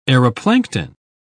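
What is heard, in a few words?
"aeroplankton", English, uk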